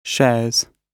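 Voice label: "shares", British English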